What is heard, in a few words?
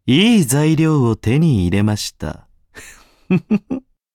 levelup ja; Cookie Run